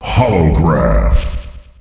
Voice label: "Holograph", announcer male; Unreal Tournament; ut2004